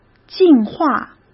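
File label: "净化", Mandarin Chinese; chinese flashcards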